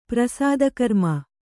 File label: "ಪ್ರಸೂತಿಕರ್ಮ", kannada